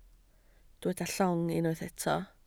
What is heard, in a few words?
"llong", Welsh